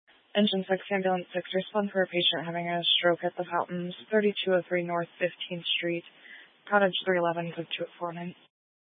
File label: Grand Junction Fire Department Dispatch